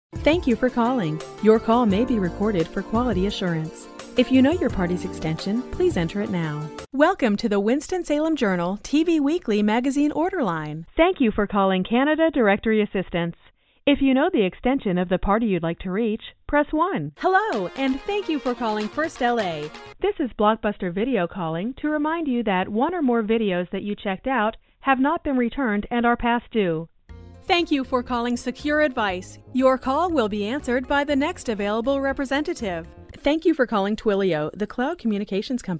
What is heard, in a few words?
american female; dictor